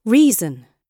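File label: "reason", British English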